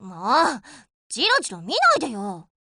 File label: stop staring, jp; p4u; yukari